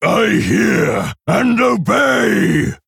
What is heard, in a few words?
Male Orc Attack